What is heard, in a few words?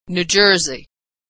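"New Jersey", American English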